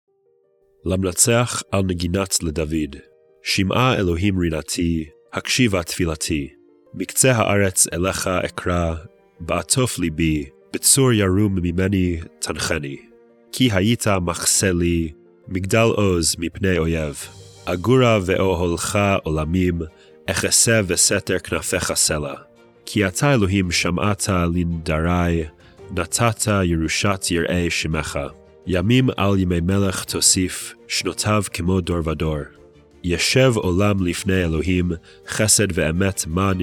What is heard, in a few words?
poetry and prayer psalm hebrew